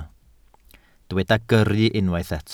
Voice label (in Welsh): "gyrru", Welsh